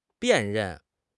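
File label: "辨认", Chinese; HSK6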